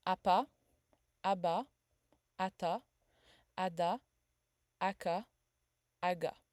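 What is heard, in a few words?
French a stop a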